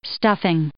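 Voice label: "stuffing", English; noun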